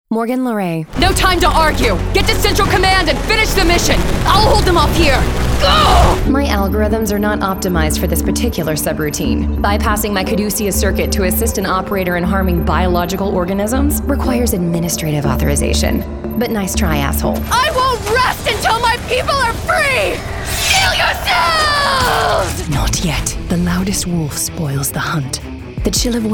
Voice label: Character